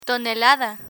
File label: "tonelada", Spanish